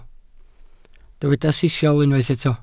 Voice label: "sisial", welsh

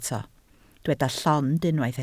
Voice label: "llond", Welsh

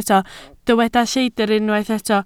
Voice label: "lleidr", Welsh

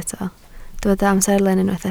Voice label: "amserlen", Welsh

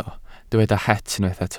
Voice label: "het", Welsh

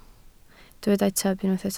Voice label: "tyb", Welsh

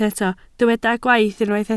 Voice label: "gwaith", Welsh

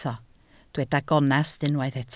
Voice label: "gonest", Welsh

